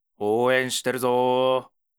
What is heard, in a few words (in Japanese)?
ouen, ASMR